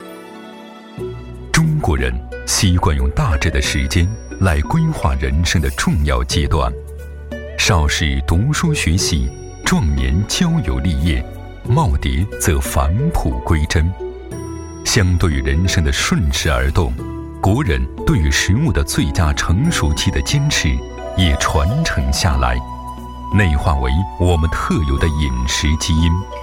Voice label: 男 【美食】沉稳-讲述-舒缓-温情-娓娓道来; 无锡美食

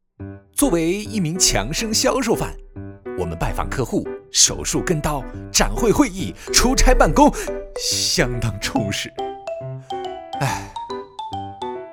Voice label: 男 活力; mg; 强生

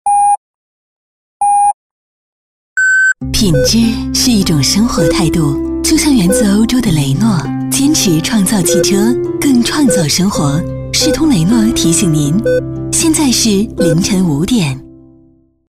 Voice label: 女 报时篇; 《雷诺》

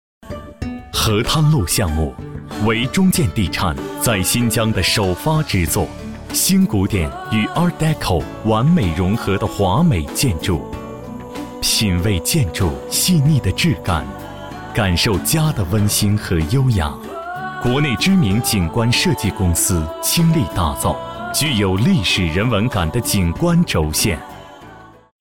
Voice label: 男, 汇报, 大气, 舒适; 景观

